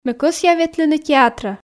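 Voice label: Komi sentences, female; ме кӧсъя ветлыны театрӧ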